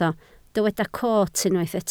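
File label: "coot" Welsh, spoken word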